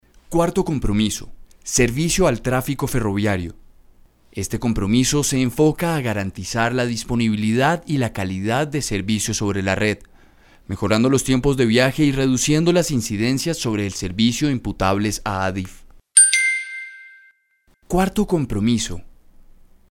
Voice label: locutor colombia colombian voiceover; locutor colombiano